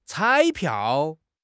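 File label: "彩票", Mandarin Chinese; HSK; HSK6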